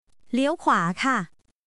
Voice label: Thai, basic thai phrases